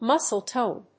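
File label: "Muscle tone", English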